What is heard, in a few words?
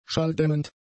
"schalldämmend", german; adjectives